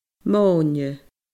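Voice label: "mòine", Scottish Gaelic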